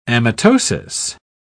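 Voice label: "amitosis", English, British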